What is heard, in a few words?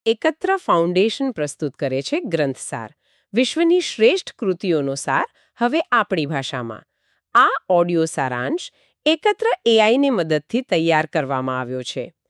Gujarati; To Kill a Mockingbird